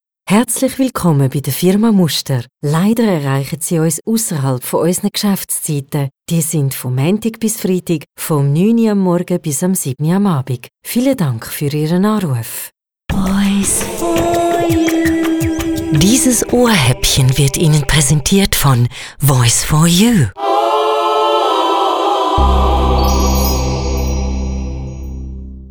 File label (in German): Telefonansage frisch Züritütsch